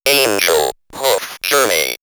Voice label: Robot Angel Puff Journey, robot vox; X-Static Goldmine

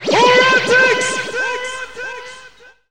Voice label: Who Run Vox, Jungle

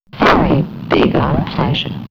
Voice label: Man Machine Big On Pleasure, robot vox; X-Static Goldmine

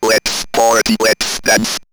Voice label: Robot, robot vox; Lets Party; X-Static Goldmine

